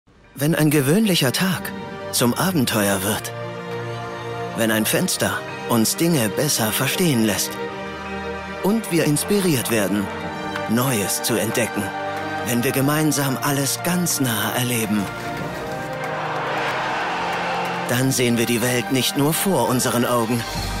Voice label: tv nur das ist fernsehen; samsung-suhd; TV SPOT SAMSUNG; German

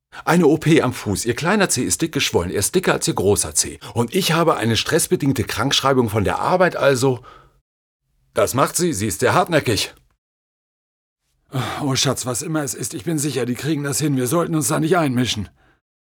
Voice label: amerik. Weltraumkomoedie, Lip-Sync (Synchron), German; Norddeutsch

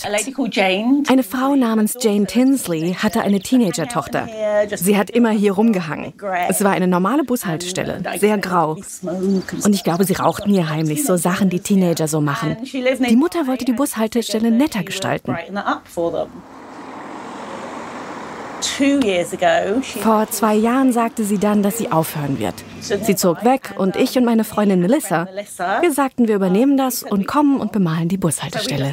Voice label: DE Over Voice; WDR

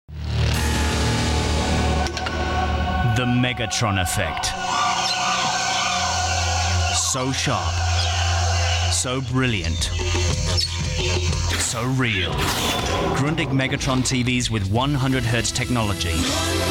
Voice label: uk englisch; LOFT VOICES

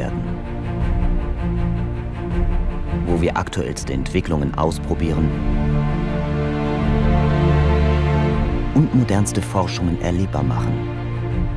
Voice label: Imagefilm Erlebnispark; LOFT VOICES

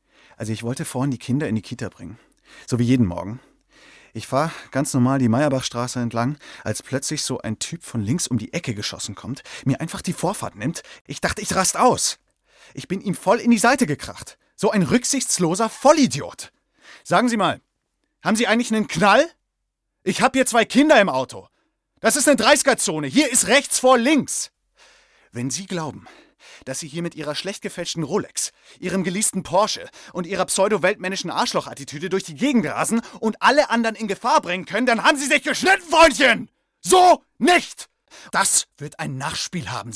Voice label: DEU Schauspiel; Auto

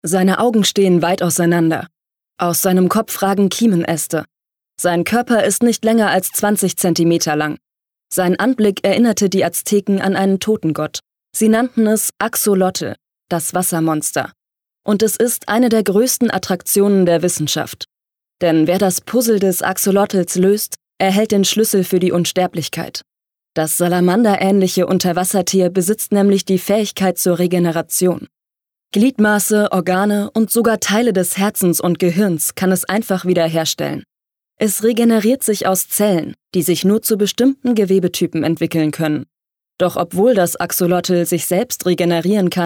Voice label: Dokumentarischer Text

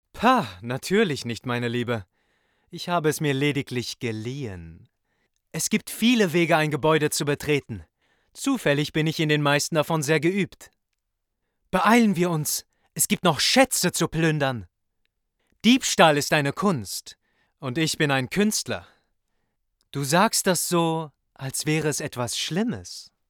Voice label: Videospiel charmanter Dieb

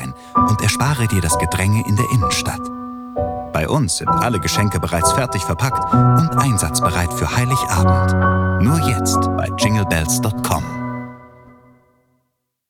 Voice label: Werbung Weihnachten; LOFT VOICES

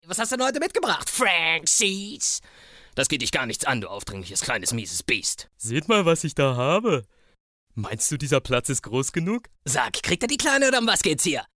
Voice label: zeichentrick stimmen

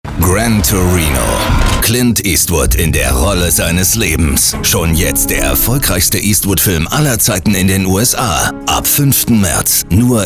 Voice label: Funkspot; Gran Torino

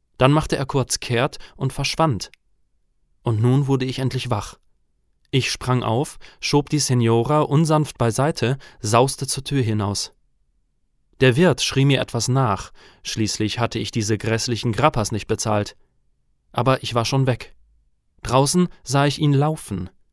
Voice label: Hoerbuch Narrative; Schatten des Adlers; Danella

